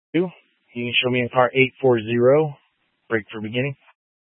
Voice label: Dispatch, Scanner audio